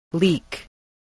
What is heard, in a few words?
"leak", voice